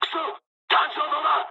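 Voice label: searchlite mood high, speech japanese; War Thunder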